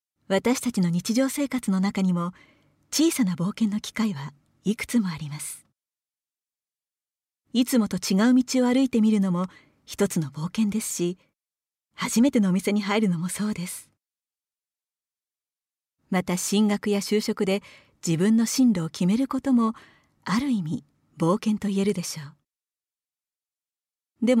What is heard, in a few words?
narration